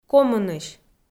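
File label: "комынысь", Komi, female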